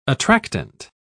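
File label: "attractant", British English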